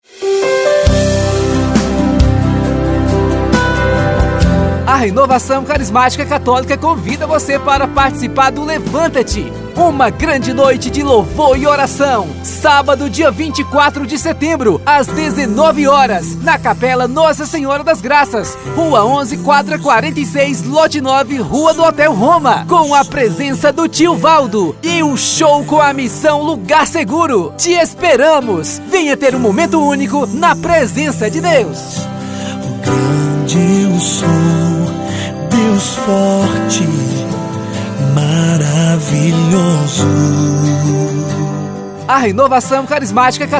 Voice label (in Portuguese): voz do locutor; renovacao carismatica